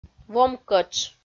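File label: "вомкӧтш", Komi, female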